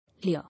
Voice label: "Leer", English